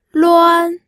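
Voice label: "luan", first tone, pinyin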